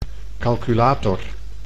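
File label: "calculator", Dutch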